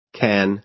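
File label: "can", American English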